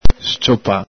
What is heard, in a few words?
"sciopa", Veneto